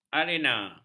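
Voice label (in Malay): "arena", Malay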